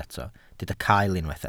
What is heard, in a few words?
"cael", Welsh